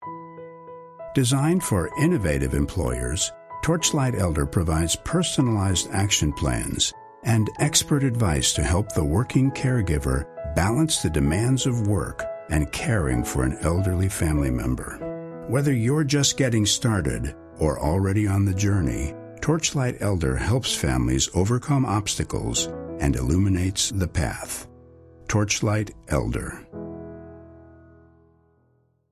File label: Corporate